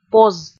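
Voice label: "поз", Komi, female; kpv audio dict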